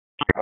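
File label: Police District Dispatch, p25; Raleigh; Southwest; wake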